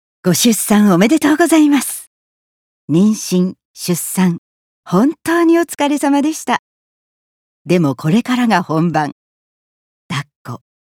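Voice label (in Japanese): 育児情報ナレ, 福岡･宮崎弁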